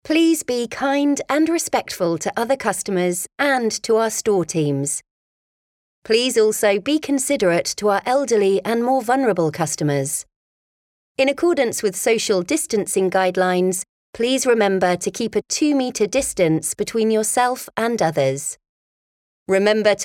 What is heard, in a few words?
covid19 announcement